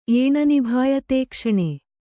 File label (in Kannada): "ಏಣನಿಭಾಯತೇಕ್ಷಣೆ", Kannada